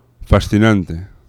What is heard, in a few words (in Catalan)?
"fascinante", fricatives; laboratori fonetica